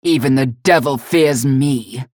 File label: chatter neutral; galena; unholy paladin